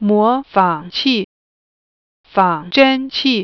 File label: emulator, chinese